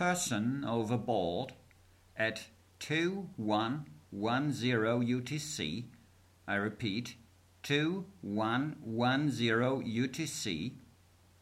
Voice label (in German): Seefunktext